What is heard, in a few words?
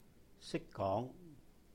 "識講", Cantonese; Can speak; sīk-góng; sik1 gong2